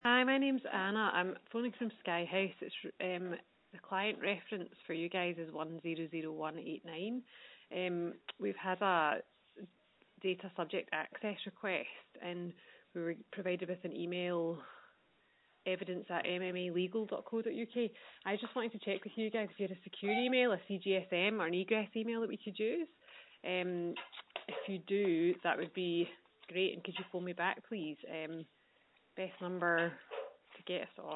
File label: call recording